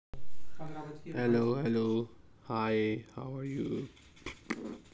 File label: voice message